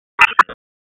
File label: Police North District Tac 1, p25; Raleigh; wake